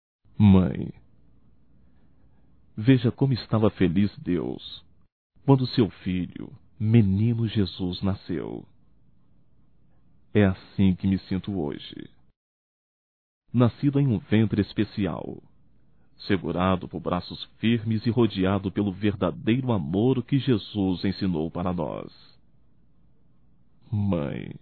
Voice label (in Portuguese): Ano Novo Mãe Masculino; Natal e ano novo; Telemensagens 2017